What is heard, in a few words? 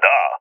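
affirmation, voice, agents, balkan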